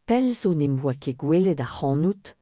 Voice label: Long time no see, Breton

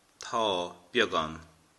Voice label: yes a little, Irish; Tá, beagán; Irish (Gaelic)

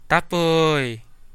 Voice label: fire, central dusun

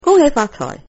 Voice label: new year, cantonese

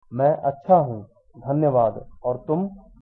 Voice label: fine, hindi

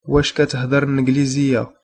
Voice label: do you speak english, arabic

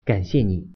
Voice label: "thanks", Mandarin

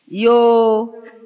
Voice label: "welcome", Ewe

